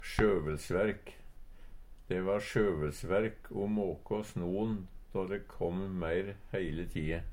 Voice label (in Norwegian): "sjøvelsverk", Numedalsmål; Substantiv inkjekjønn; De va sjøvelsverk o måka sno`n då de kom meir heile tie.